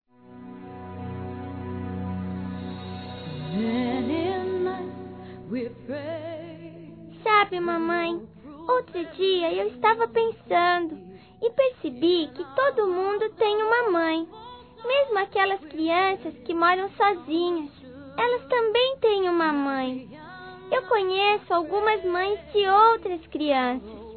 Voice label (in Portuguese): INFANTIL F; Telemensagens 2017